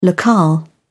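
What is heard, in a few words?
"locale", English, British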